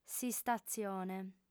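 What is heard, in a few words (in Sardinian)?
"s'istatzione", female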